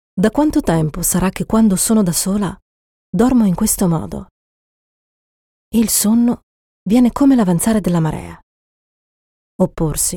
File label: AUDIOBOOK